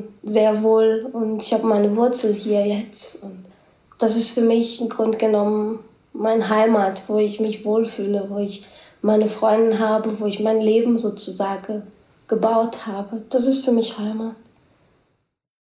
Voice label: portogiesisch brasilien

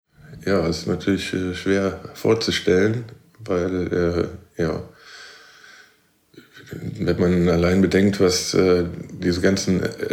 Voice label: deutsch esperanto

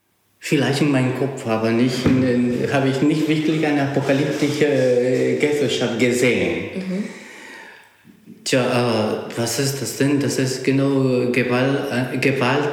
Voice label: spanisch ecouador

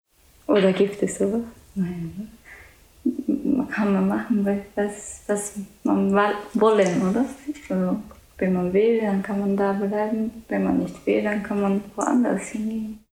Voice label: japanisch

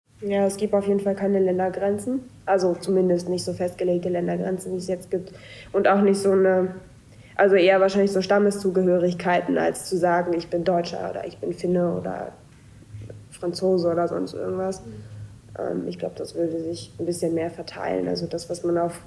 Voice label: finnisch schwedisch finnland

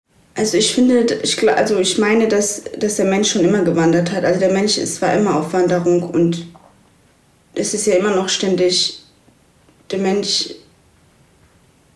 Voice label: kurdisch

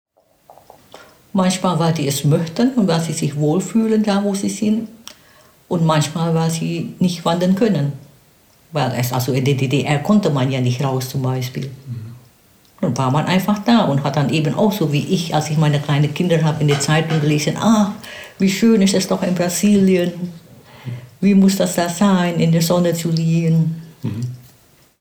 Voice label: indonesisch

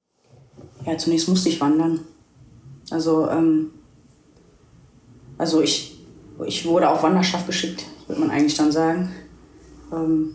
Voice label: tigrinya eritrea

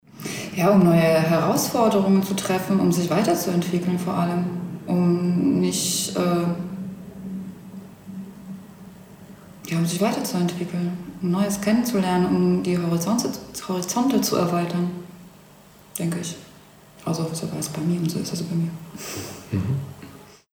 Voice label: polnisch

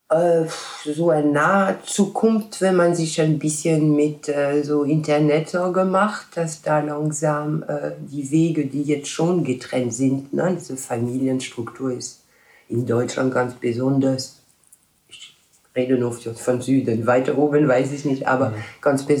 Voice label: franzoesisch

